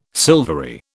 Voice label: "silvery", British